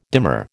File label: "dimmer", American